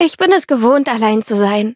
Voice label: loading, de; Cookie Run